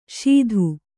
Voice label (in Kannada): "ಶೀಧು", Kannada